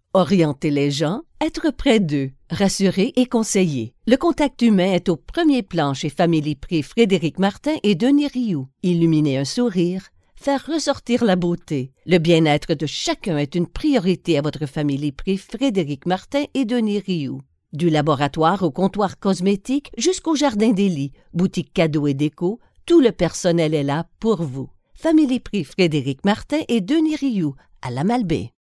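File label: French Canada female, french canada voice over talent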